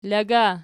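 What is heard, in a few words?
"laga" nominative, Archi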